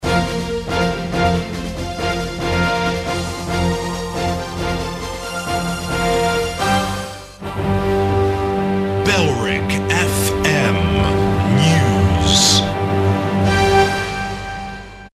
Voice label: news (pompous); bailrigg fm

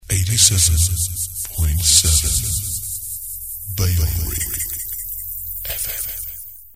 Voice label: male echo, no bed; 87.7 bfm; bailrigg fm; bfm jingles ads RSL 1994

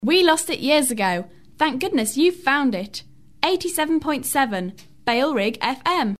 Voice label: female, no bed, jingles; we lost it years ago; bailrigg fm; bfm jingles ads RSL 1994

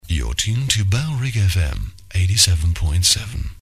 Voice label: male, no bed; you're tuned to bfm 87.7; bailrigg fm; bfm jingles ads RSL 1994

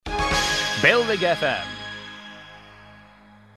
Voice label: (male fading sting), jingles; bailrigg fm

